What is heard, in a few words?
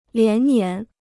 "连年", Chinese